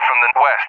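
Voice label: "from the west", English, USA; DCS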